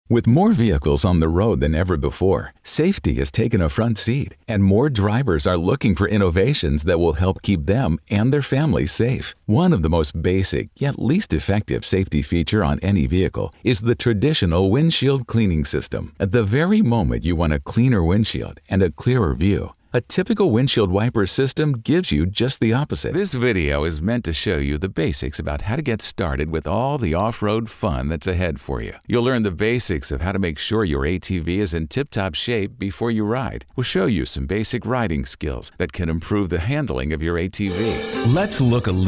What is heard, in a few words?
Explainer